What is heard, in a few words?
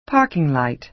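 "parking light", American English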